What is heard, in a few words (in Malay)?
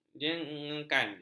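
"jengekan", Malay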